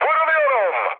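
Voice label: aircraft damaged, mood low, Turkish; speech; War Thunder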